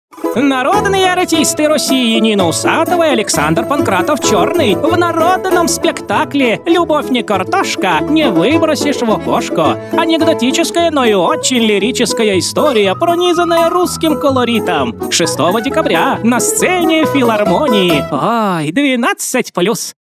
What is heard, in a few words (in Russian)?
russkaya babulka